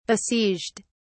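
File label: "besieged", British English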